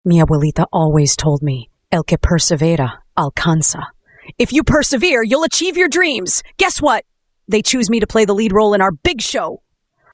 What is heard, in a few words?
English Spanish; MiniMax Speech